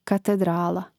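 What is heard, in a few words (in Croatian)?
"katedrala", Hrvatski; Mrežnik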